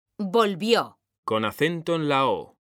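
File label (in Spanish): "VOLVIÓ", Spanish, female; mekanta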